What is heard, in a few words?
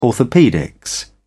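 "orthopaedics", British English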